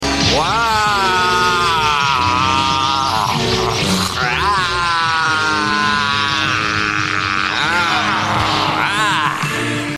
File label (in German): german; the noise Kenshiro's German voice actor makes when using the crack fist against Zeed; Hokuto no Ken